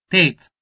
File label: "tet", Albanian